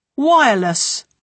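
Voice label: "wireless", British English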